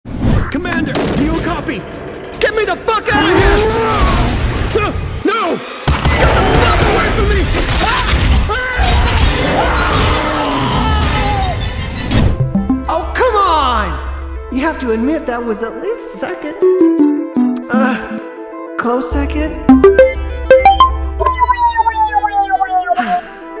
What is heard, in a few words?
game VO; courage